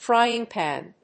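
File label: "frying pan", English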